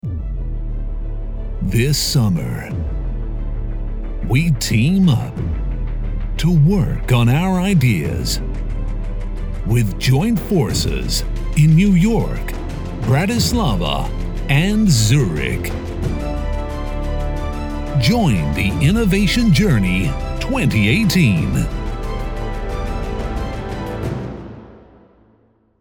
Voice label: 广告 大气力度; 合力创新之旅

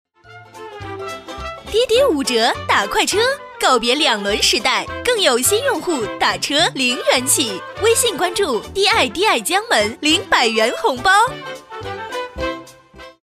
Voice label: 女, 国语女声配音 彩铃广告; 滴滴打车

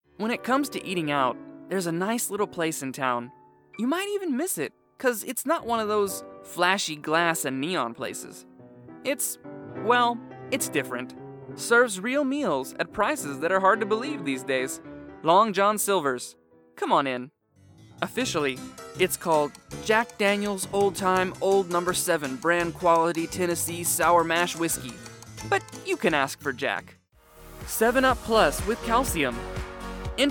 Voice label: 外籍男 Food Drink 食品饮料介绍

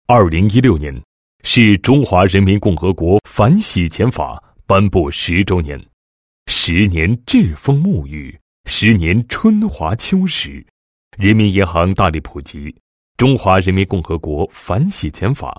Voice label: 男 宣传片; 反洗钱法颁布10周年宣传片

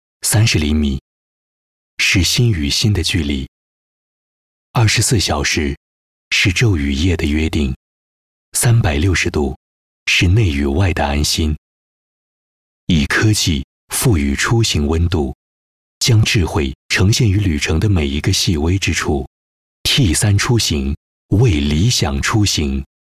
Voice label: 男, 温情, 干声; T3出行

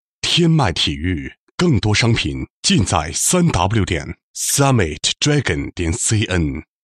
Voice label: 品牌广告, 国语男声配音, 男高音; 天脉体育

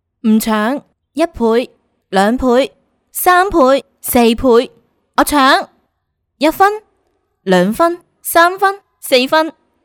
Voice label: 女 粤语棋牌 女声

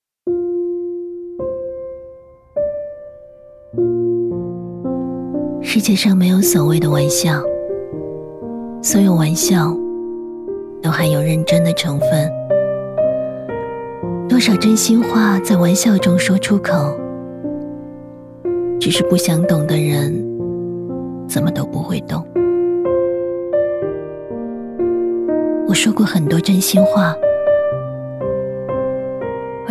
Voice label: 女 独白; 猫的树风格

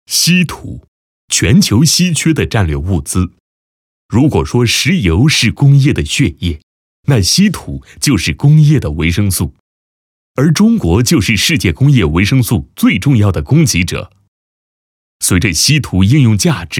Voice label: 男, 介绍解说 稀土产品介绍; 和利稀土

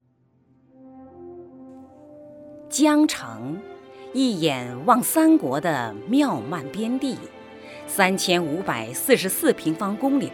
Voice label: 女 大气专题, 成熟专题; 江城县; 国语女声配音

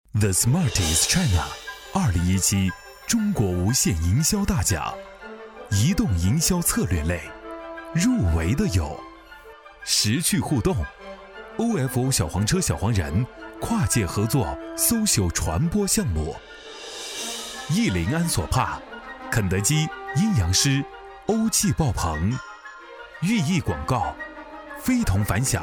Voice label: 男, 颁奖风格, 营销奖

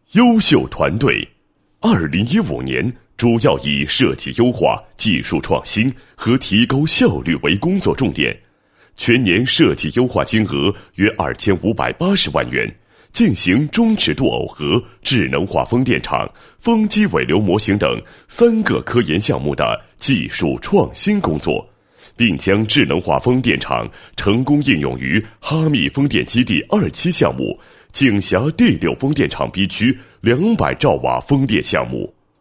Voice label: 男 颁奖 优秀团队等颁奖词

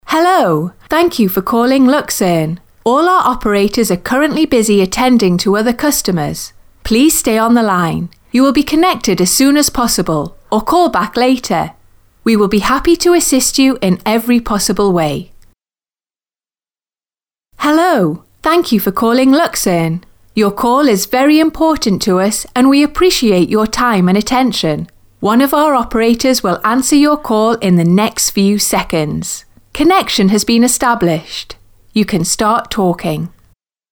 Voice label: 外籍英女-电话应答系统

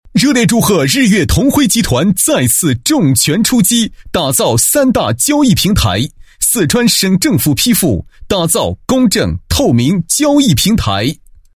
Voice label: 男 企业; 日月同辉集团交易平台

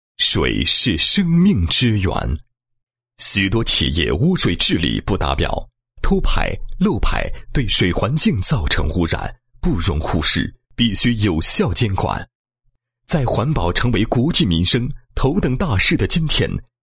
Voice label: 男 产品介绍, 水质污染源自动监控系统介绍

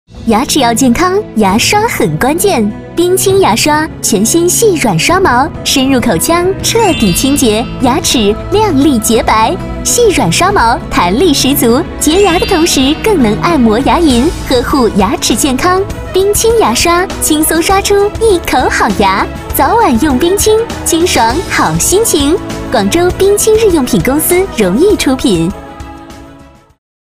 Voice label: 女 广告 时尚 牙刷, 国语女声配音; 冰清牙刷

